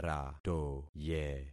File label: "ratoye", concat syllables; Affixing experiment